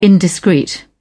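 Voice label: "indiscreet", British English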